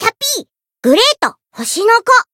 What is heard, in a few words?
Voice prince growth, jp